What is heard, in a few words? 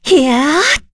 Casting, kr; Yuria; kingsraid